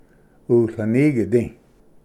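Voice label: "utlinigidi", Cherokee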